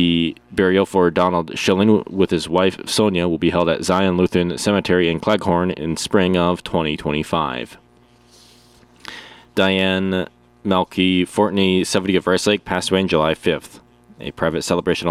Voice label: Funeral Announcements